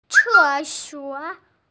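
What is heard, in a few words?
"ce4suo3" Mandarin Chinese, female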